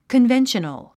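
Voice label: "conventional", English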